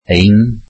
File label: welsh our